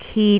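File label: "kit3", Cantonese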